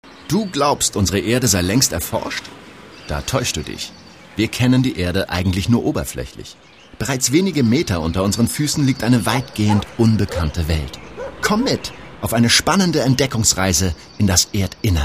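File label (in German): lehrfilm, deutsch männer; sprecher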